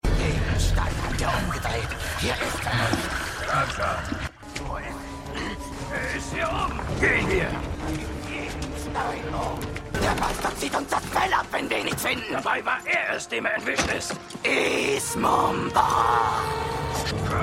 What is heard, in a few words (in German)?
Orcs, Stimmproben; DER HERR DER RINGE: DIE RINGE DER MACHT; The Lord of the Rings